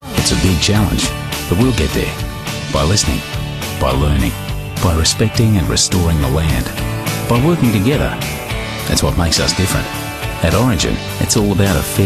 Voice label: Aussie Bloke